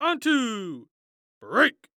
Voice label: Human Voice Male Young Man Football Quarterback One Two Break; Sports; Adobe; TTRPG